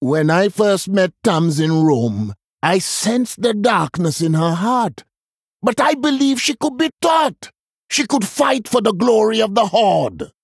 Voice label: Male Troll Boss Reveal